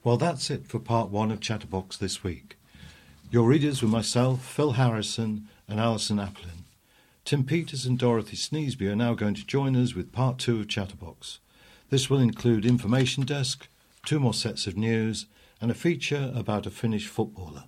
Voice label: Closing Announcement